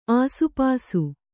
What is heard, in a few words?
"ಆಸುಪಾಸು", kannada